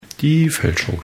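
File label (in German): "Fälschung", d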